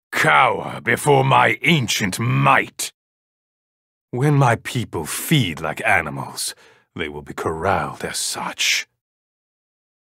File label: Voice Overs